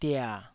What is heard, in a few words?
"dia3", Taiwanese syllable